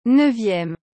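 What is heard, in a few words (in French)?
"neuvieme", French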